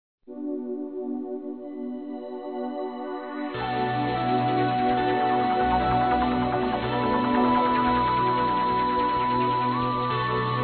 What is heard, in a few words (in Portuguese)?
NEUTRO, masc